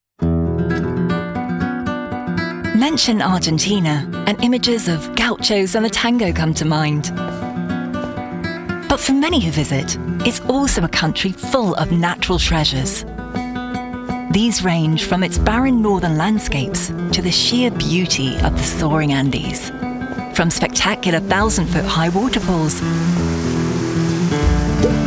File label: Documentary